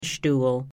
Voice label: "schtuhl", Pennsylvania Dutch; u, uu, uh; u in July